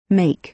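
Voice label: "make", American English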